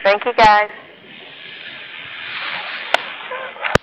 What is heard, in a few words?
cassette recorder male talking then female; Lydia's Bridge 27 Oct Monday time approx 0235; female cry